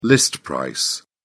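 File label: "list price", British English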